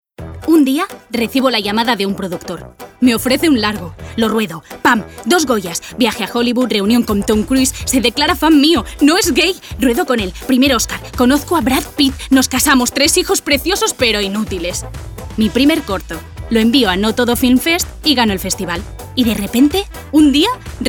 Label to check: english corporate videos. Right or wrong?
Wrong